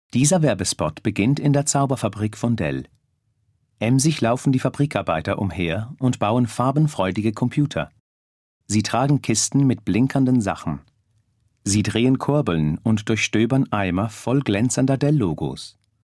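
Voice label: DE Narration, German; GoLocalise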